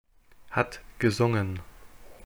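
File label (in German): "hat gesungen", German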